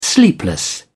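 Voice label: "sleepless", British English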